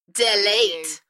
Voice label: UK female "Delete" Enthusiastic; beanstalk audio

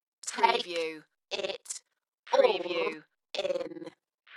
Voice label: UK female, Dalekness; Take it all in; beanstalk audio

